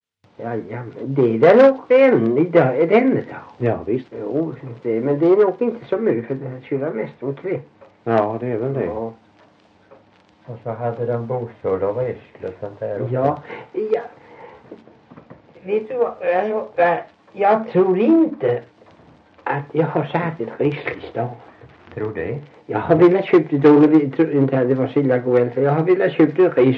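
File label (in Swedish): Värö Halland, dialekter; Folkminnen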